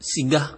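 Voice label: "ciga", Nigerian Pidgin, male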